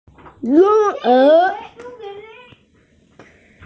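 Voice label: "ying1er2", Mandarin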